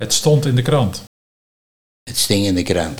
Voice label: "Het stond in de krant - Het sting in de krant", Nieuw lekkerlands dialect

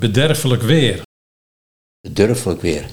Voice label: "Bedurfelijk weer", Nieuw lekkerlands dialect; bederfelijk weer

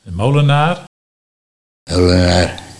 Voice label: "Meulenaar", Nieuw lekkerlands dialect

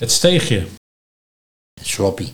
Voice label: "Het steegje - 't sloppie", Nieuw lekkerlands dialect

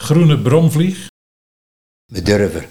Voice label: Bedurver, Nieuw lekkerlands dialect; groene bromvlieg

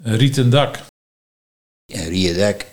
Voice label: "Rieje dak", Nieuw Lekkerlands dialect; rietendak; rieendak

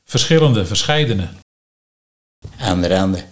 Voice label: "Anderande", Nieuw lekkerlands dialect; verschillende, verscheidene

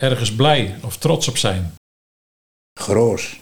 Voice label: "Grôôs", Nieuw lekkerlands dialect; ergens blij / trots op zijn

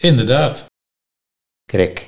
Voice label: "Krek", Nieuw lekkerlands dialect

